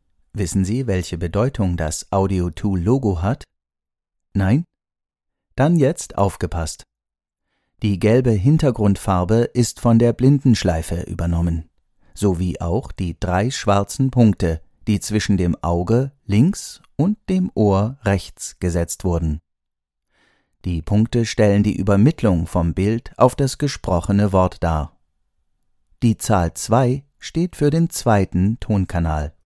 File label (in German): Hochdeutsch, sprechproben